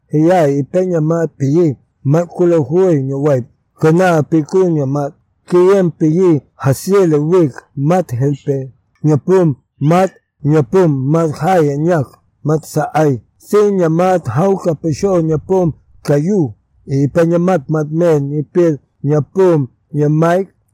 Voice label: narration tule boats; coastwalk